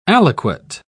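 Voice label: "aliquot", British English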